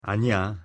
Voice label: "아니야", Korean; pronunciation

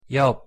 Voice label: "옆", pronunciation ko

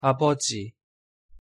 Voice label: "아버지", Korean; pronunciation

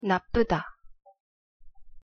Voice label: "나쁘다", Korean

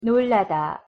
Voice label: "놀라다" Korean pronunciation

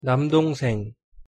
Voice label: "남동생", Korean

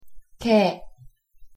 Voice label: "개", Korean

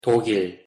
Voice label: "독일", Korean

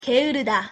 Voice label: "게으르다" Korean pronunciation

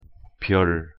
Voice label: "별", Korean; pronunciation

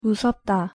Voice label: "무섭다", Korean; pronunciation

